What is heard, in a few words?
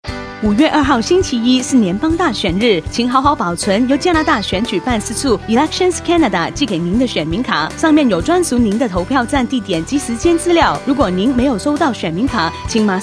mandarin